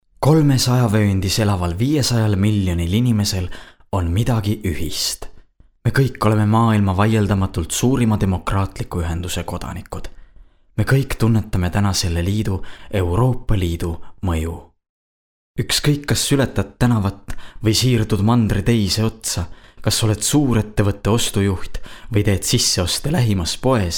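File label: Estonian male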